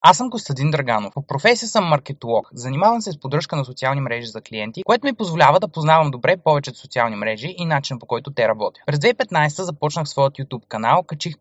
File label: voice over; koi sum az influncer